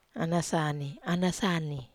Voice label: "anasani", Wamesa